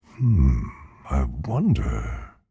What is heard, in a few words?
thinking